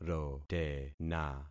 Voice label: "rotena", concat syllables; Affixing experiment